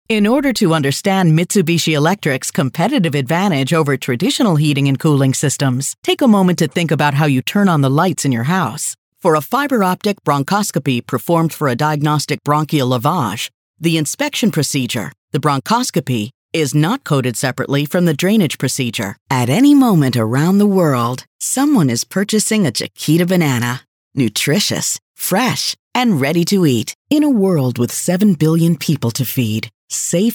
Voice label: ELEARNING and NARRATION

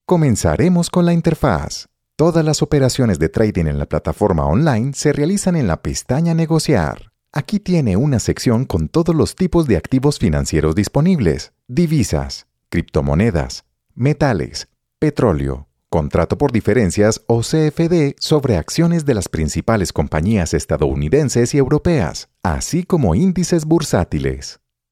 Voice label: Account tutorial Spanish

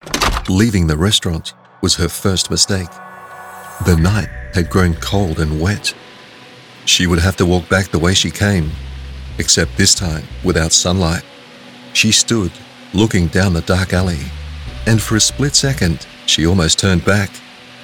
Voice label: movie trailer

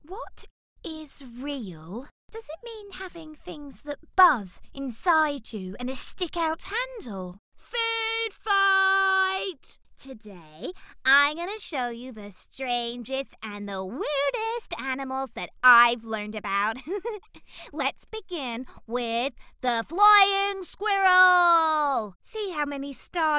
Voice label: Children s Voices

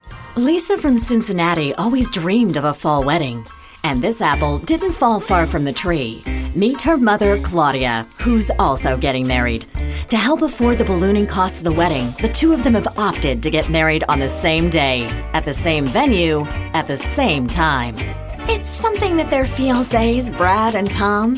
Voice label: Womens TV Narration

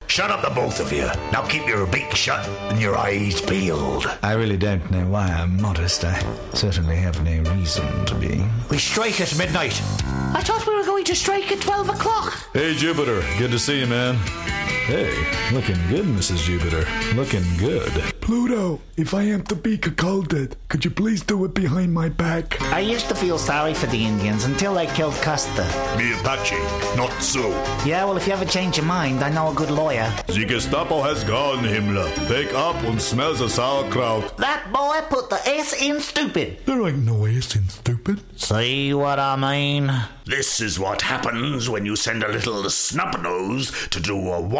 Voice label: Cartoon Characters

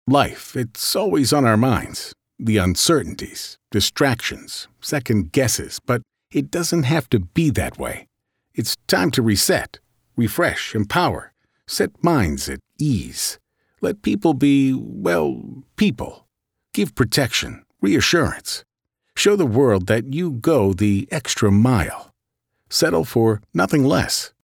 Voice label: Morgan Freeman-esque voice

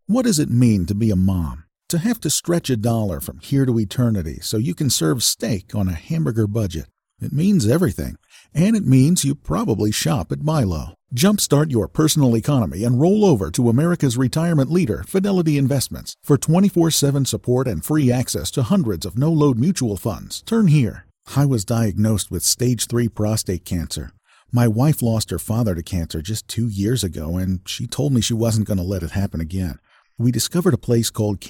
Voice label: Commercial No Music

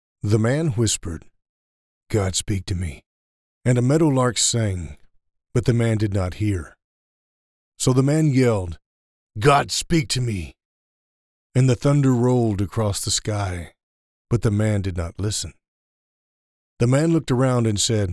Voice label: Whispers

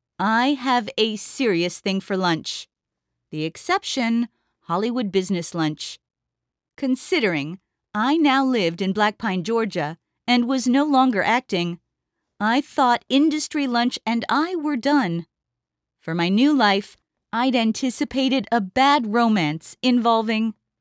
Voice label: Narrator; 16 Millimeter

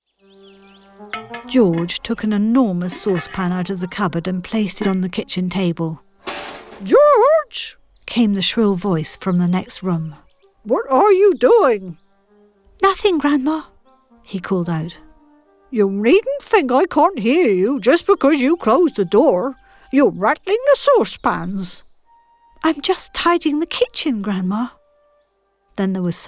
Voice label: audiobook

